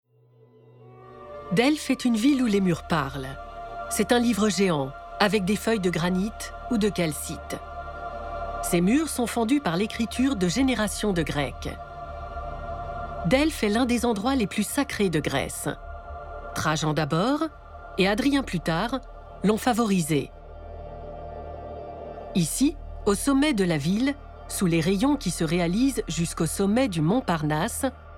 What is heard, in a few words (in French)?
narration